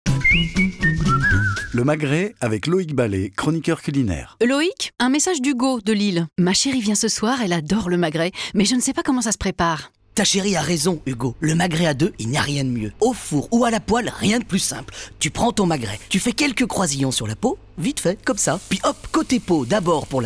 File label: radio 30s Jingle; Le magret avec Loïc Ballet; La Fiancée